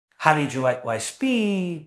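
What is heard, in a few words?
Voice Acting, Spanish, male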